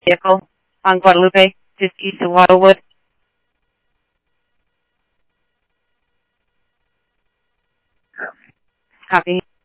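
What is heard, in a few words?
Simulcast police department, scanner audio trunk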